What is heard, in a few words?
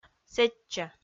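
"сэтчӧ", Komi, female; kpv audio dict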